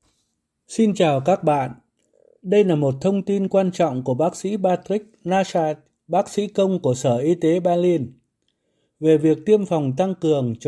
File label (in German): vietnamesisch; booster